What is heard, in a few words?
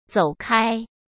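"走开", Mandarin Chinese; hsk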